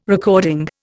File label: "recording", Indian